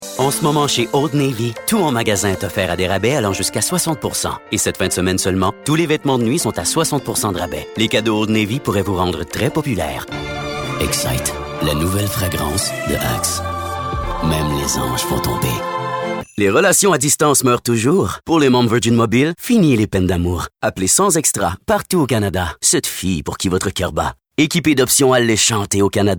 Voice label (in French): ANNONCEUR